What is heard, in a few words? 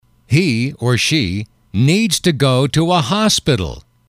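he she needs to go to a hospital, English, male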